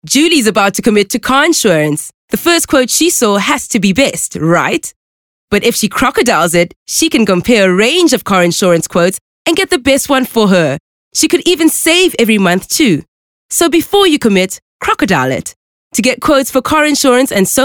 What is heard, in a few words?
English Bright